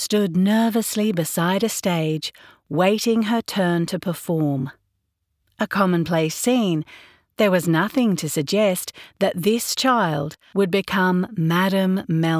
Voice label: Australian Non-Fiction; Melba